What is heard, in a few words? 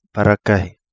"Parakahi", Kapingamarangi